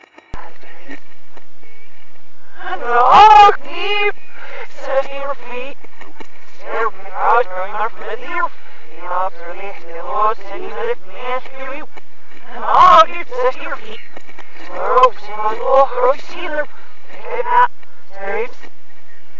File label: banishes reversed; century ghost; winchester